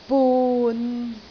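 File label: "bun6", Cantonese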